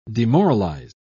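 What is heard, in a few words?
"demoralised", British English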